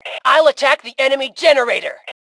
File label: Self Attack Generator, Female; T2 Female 04